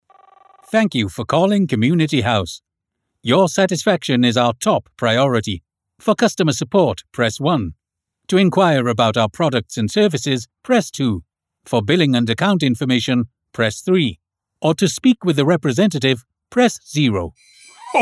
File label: ivr welsh accent